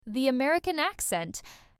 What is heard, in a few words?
The American accent